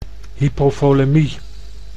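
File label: "hypovolemie", Dutch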